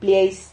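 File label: "plieis", Spanish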